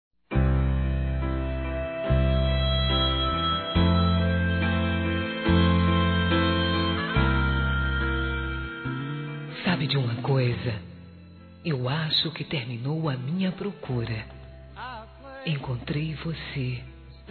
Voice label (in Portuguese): Inicio de Namoro Feminino; Telemensagens; Telemensagens 2017